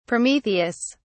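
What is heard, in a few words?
"prometheus", British English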